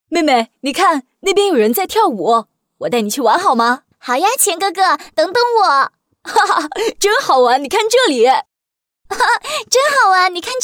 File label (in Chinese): 女 角色 哥哥妹妹